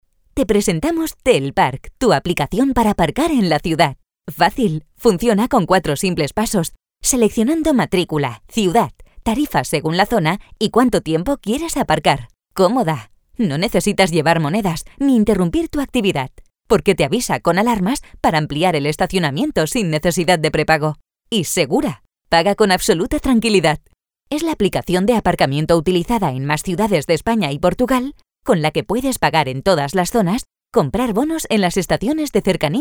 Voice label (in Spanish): comercial